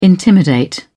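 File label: "intimidate", British English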